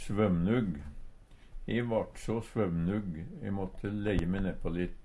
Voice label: svømnug, Numedalsmål; Adjektiv

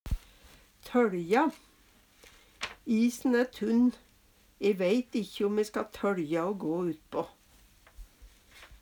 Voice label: tøLja, Numedalsmål; Verb; Isen æ tunn, e væit ikkje om e ska tøLja o gå utpå.

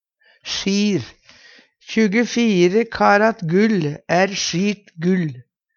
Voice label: sjir, Numedalsmål; 24 karat gull æ sjirt gull.; Adjektiv

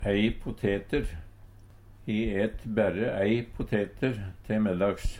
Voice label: poteter, Numedalsmål

